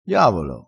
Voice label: "diavolo", dialettale crespinese

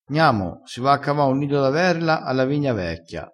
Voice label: "cava", crespinese

